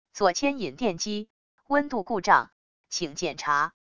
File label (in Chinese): 语音, 语音报警器; EJM 560